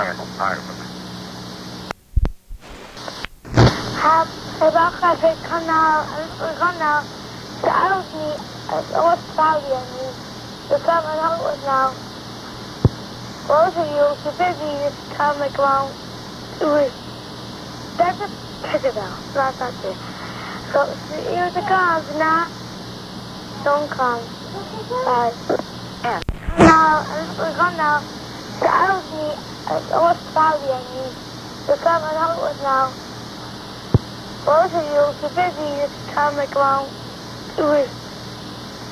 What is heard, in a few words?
wrong call message